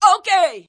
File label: diva "okay"